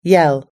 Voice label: "yell", us-english